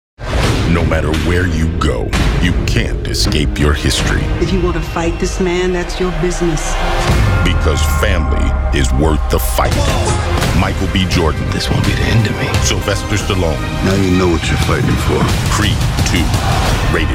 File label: Trailers